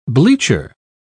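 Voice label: "bleacher", British English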